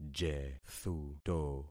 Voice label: "jethuto", concat syllables; Affixing experiment